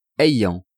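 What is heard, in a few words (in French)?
"ayant", French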